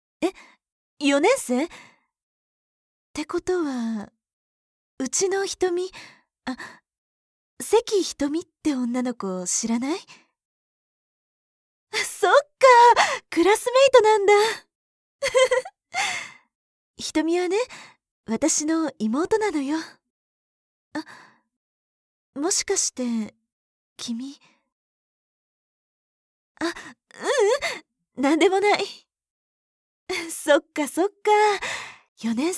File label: friendly